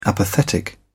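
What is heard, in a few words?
"apathetic", British English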